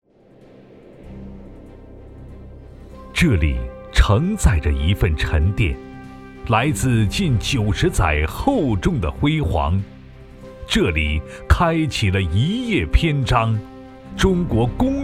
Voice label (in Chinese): 男 企业宣传; 上海工业锅炉有限公司